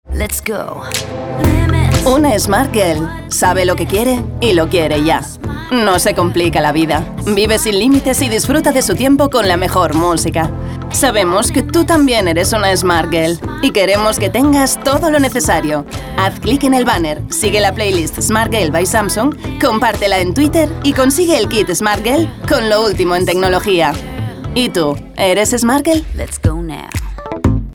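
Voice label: commercials spanish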